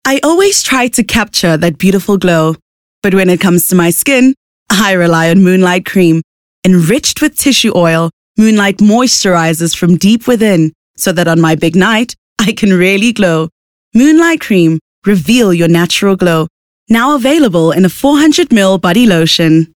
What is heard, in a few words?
English Bright